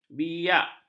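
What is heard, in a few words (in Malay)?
"biak", Malay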